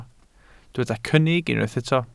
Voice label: "cynnig", welsh